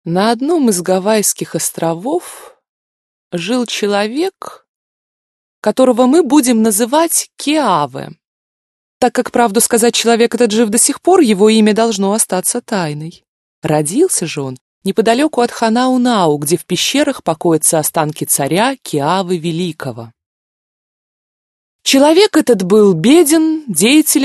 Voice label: russian female

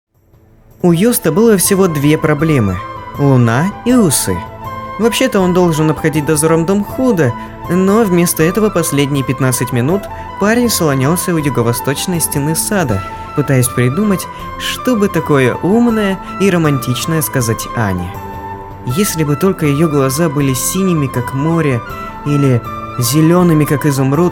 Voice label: rus

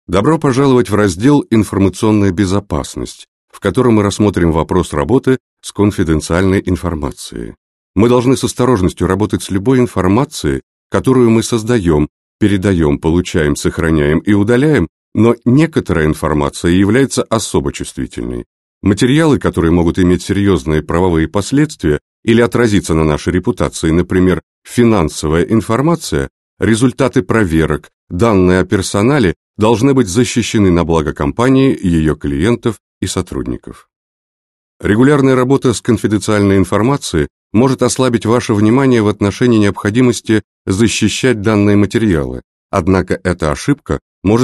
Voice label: russian male

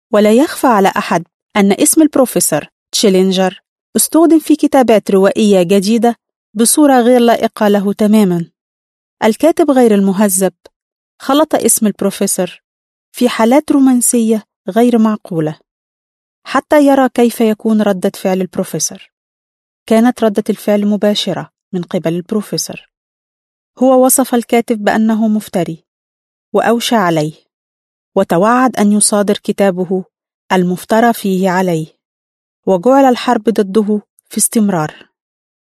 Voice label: arabic female

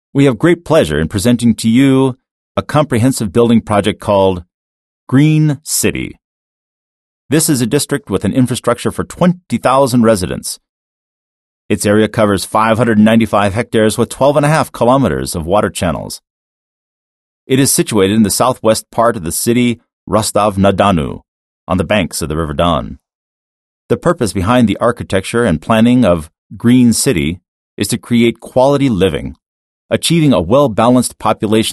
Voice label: american english male

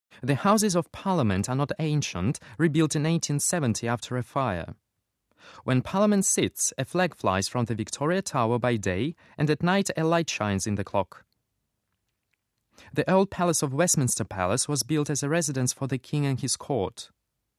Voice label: british english male

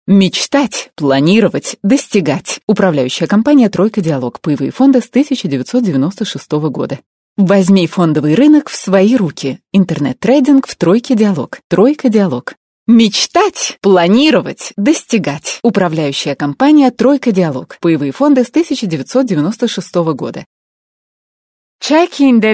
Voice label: russian italian female

